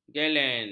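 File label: "gelen", Malay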